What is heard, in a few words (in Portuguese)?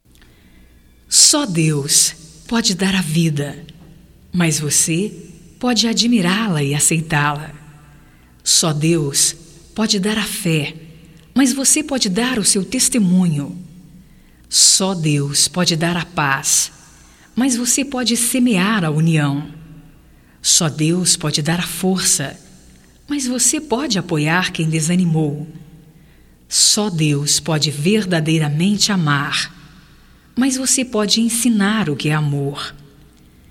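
OTIMISMO EVANGELICO - female, Telemensagens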